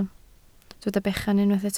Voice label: "bechan", Welsh

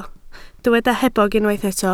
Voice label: "hebog", Welsh

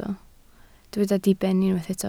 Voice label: "diben", Welsh

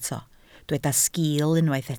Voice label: "sgiil", Welsh

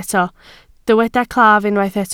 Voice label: "claf", Welsh

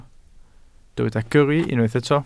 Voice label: "gyrru", Welsh

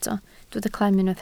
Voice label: "clem", Welsh

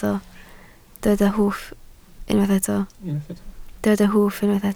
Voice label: "hwff", Welsh

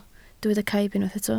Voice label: "caib", Welsh

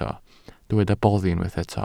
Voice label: "boddi", Welsh

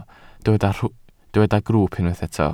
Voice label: "grwwp", Welsh

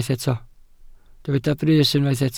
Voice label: "brys", Welsh

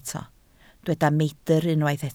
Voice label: "meidr", Welsh

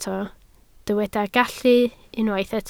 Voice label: "gallu", Welsh

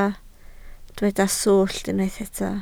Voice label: "swllt", Welsh

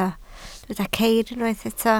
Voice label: "ceir", Welsh

